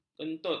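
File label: "kentut", Malay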